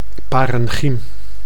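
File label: "parechchym", Dutch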